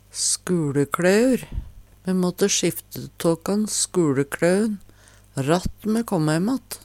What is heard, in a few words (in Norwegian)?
"skulekjaeur", Numedalsmål; Substantiv inkjekjønn; Personleg utstyr, klede, sko